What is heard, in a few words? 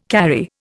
"carry", Indian